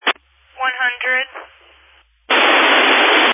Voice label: Family Radio Service (FRS)